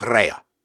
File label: Syllables and Imaginary Words, Hard, Viking Vocal